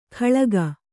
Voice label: "ಖಳಗ", kannada

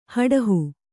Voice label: "ಹಡುಹು", Kannada

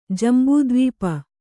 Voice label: "ಜಂಬುದ್ವೀಪ", Kannada

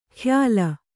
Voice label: "ಖ್ಯಾಲ", kannada

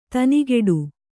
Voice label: "ದನಿಗೊಡು", Kannada

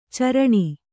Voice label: "ಚೀರಣೆ", Kannada

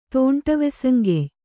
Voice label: "ತೋಂಟವೆಸಂಗೆಯ್", Kannada

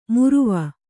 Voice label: "ಮುರುವ", Kannada

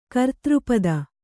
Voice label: "ಕರ್ತೃಪದ", Kannada

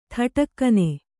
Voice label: "ಥಟಕ್ಕನೆ", Kannada; thaṭakku; immediately; suddenly.

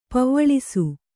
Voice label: "ಪೆವ್ವಳಿಸು", kannada

